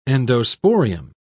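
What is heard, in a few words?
"endosporium", British English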